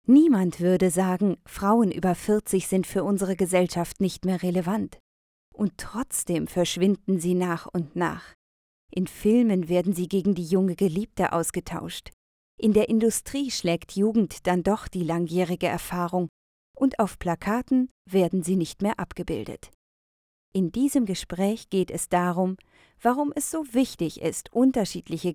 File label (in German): Reportage